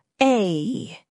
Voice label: "eɪ", phonetics sound